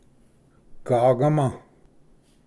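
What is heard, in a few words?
"gagama", Cherokee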